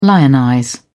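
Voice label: "lionize", British English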